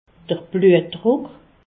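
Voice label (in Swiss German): "bluetdruck", Alsatian; hypertension; medecine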